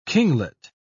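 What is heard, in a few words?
"kinglet", British English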